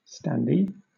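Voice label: "standee", British English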